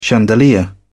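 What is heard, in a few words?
"chandelier", British English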